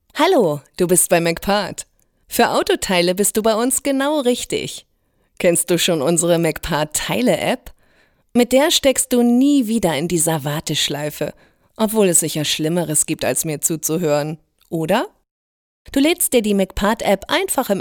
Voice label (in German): Telefonansage